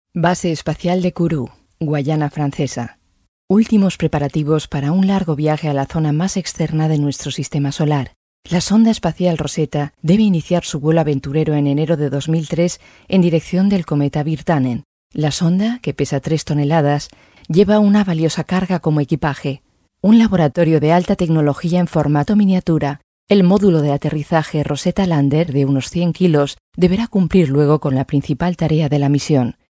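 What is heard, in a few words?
Spanish corporate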